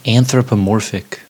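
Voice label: "anthropomorphic", American English; pronunciations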